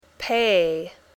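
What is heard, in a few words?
"pay", English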